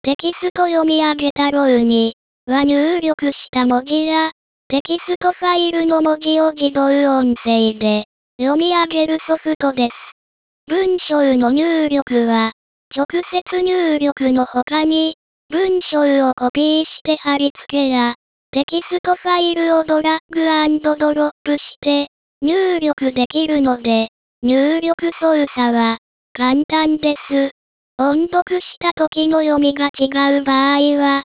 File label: lady